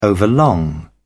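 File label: "overlong", British English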